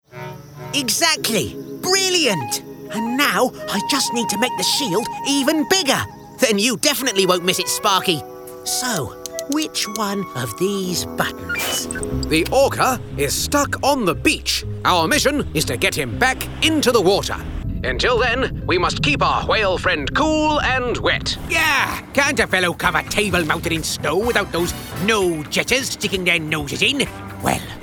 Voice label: animation